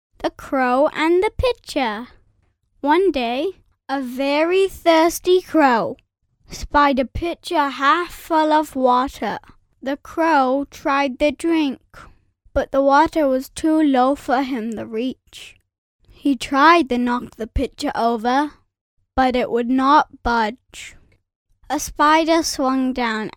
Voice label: eng british boy